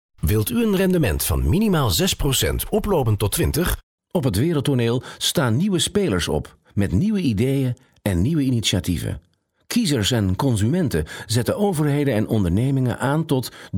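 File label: dutch male narration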